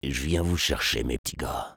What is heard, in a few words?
sniper taunts, fr